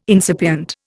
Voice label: "incipient", Indian

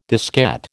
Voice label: "diskette", American

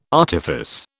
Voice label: "artifice", British

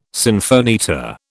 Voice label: "sinfonietta", British

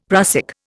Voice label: "prussic", Indian; Dictionary

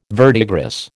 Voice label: "verdigris", American

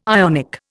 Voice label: "Ionic", Indian

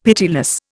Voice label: "pitiless", Indian; Dictionary

